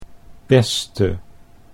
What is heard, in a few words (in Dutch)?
"beste", Dutch